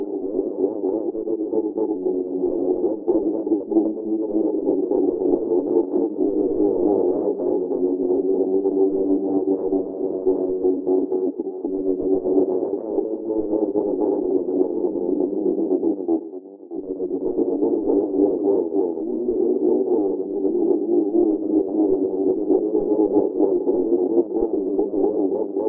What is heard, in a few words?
computer speech